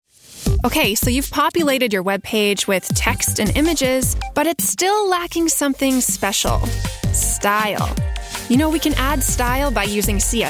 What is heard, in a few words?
Conversational Online Teen Learning Course; eLearning Voice Over; Friendly, Conversational - Online Course